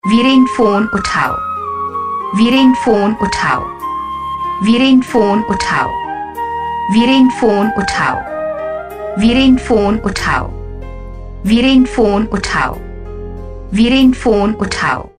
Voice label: Phone Uthao, Ringtones